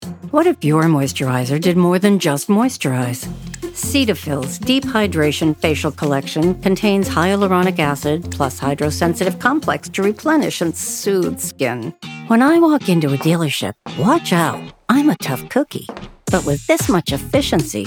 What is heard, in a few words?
commercial